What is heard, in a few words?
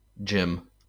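"gym", American English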